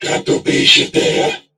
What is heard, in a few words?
Patrol, Crewmen, en; Warframe; Corpus Crewmen; Crewman E; Dialog Barks Battle